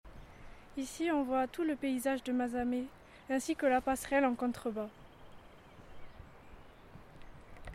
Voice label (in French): LECTURE CARTEL PAYSAGE; MAZAMET